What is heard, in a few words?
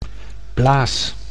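"blaas", Dutch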